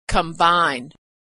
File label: "combine", pronunciation